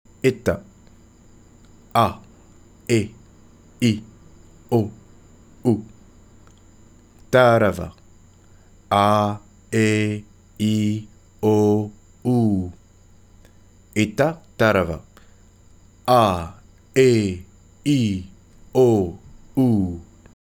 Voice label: alphabet, reotahiti; tahitian